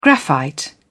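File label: "graphite", British English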